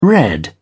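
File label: "red", British English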